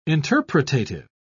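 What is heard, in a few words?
"interpretative", British English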